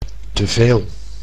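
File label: "te veel", Dutch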